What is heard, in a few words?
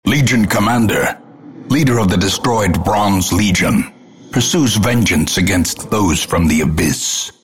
announcer; Dota 2